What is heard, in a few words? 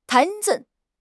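"Tai2", Mandarin Chinese; HSK4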